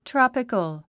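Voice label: "tropical", English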